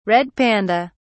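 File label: "red panda", English, British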